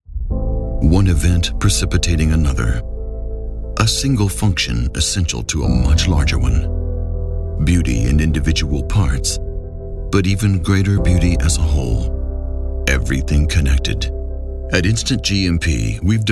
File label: Corporate Inspirational